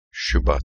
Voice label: February in Turkish